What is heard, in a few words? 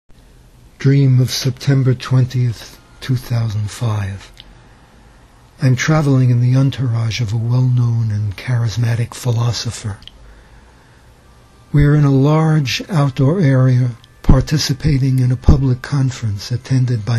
dream recitation